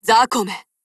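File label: PHRASE, Japanese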